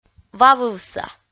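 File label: "вавывса", Komi, female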